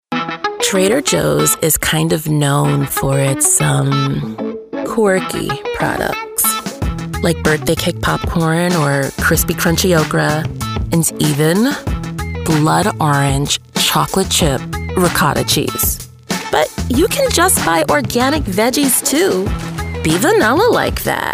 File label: Commercial